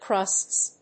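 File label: "crusts", English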